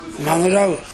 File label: "magurau", minamiyamagata